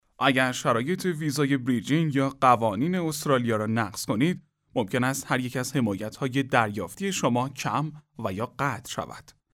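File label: Farsi, Iran, male, voice over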